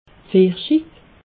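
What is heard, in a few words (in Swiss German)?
"pfirschi", Alsatian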